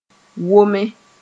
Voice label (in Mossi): "wome", moore